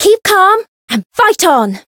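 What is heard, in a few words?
Voice encounter fear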